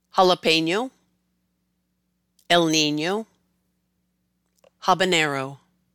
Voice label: Jalapeno to Habanero, Spanish words used in English